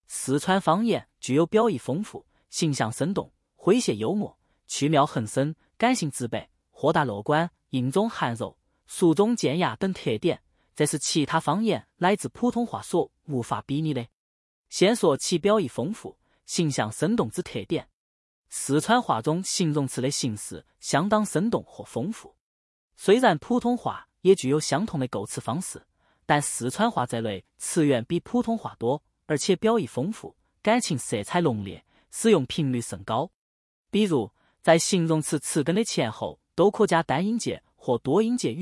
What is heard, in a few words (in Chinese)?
有趣的四川方言